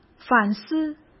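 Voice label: "反思", Mandarin Chinese; hsk; chinese-flashcards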